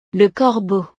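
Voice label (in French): "le corbeau", French; el cuervo; animales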